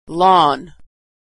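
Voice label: "lawn", American English; vowel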